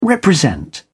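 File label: "represent", British English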